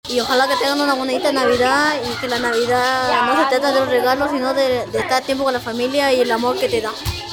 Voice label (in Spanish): alumno Cuarto Basico; Escuela de Chiu Chiu